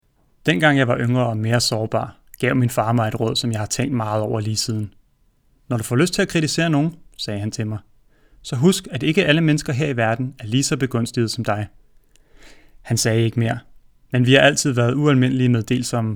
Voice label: Audio Book Danish; Gatsby